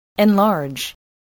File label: "enlarge", spelling